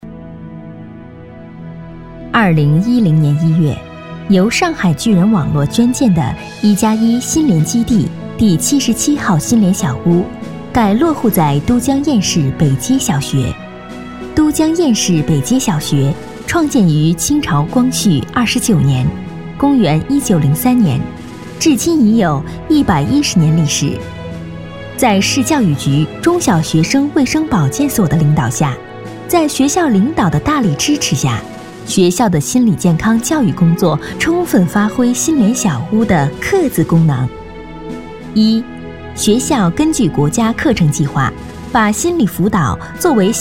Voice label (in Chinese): 专题 学校 小学 亲切, 女; 都江堰市北街小学